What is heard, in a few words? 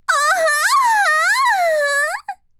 h voice; おっぱい図鑑